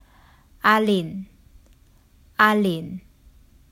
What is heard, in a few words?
"Ah Lin 阿玲", Hakka; The Duke Who Didn’t: Glossary & Pronunciation Guide